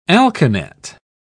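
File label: "alkanet", British English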